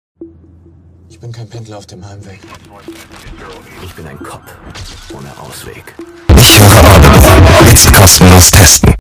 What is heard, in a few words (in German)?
werbung earrape; cop ohne ausweg